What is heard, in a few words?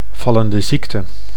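"vallende ziekte", Dutch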